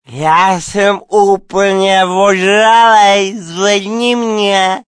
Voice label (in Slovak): sms hlásky cz